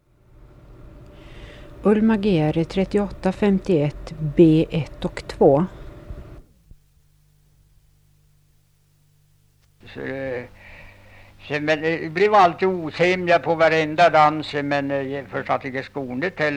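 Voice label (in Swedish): Edsbro Uppland, dialekter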